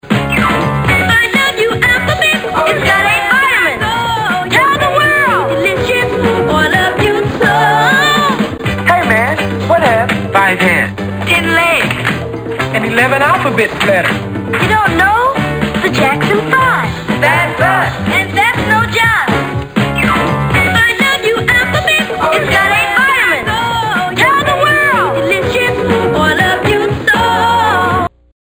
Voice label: commercial; alphabits